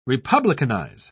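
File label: "republicanize", British English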